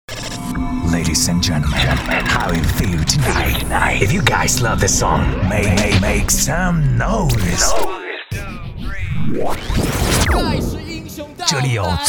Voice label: 节目片头音乐; 最现场

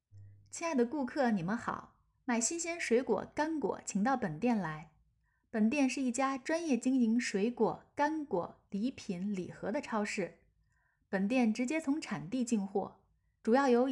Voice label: 水果超市宣传

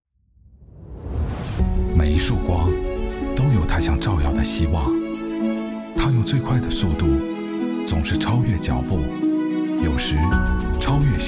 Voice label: 科技感; 每一束光

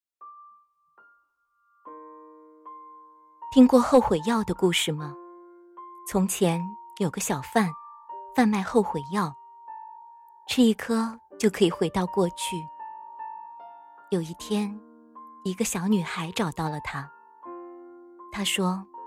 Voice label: 微电影解说配音

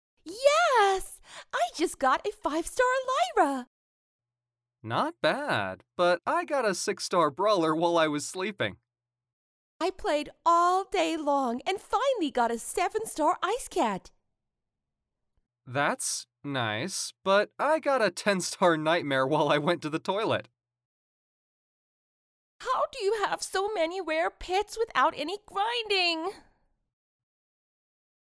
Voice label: 美式女英文游戏英文角色游戏

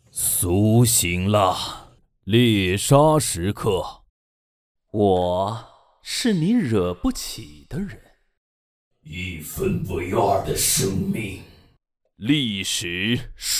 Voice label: 样音游戏配音; 游戏声展

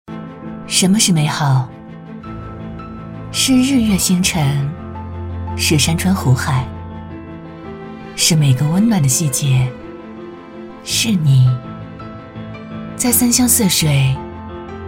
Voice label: 宣传房产先导片

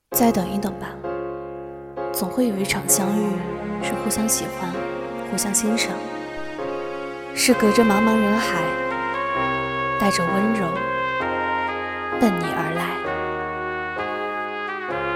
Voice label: 情感文案配音

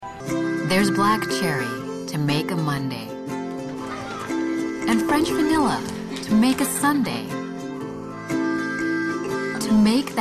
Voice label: 温情食物广告

